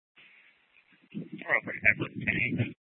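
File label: Police Department North Dispatch, scanner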